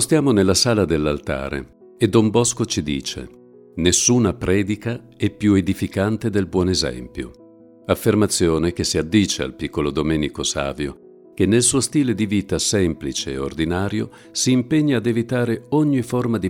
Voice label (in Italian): AUDIOGUIDE; Casetta Domenico Savio; Sala dell'altare